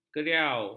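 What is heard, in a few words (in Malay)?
"keriau", Malay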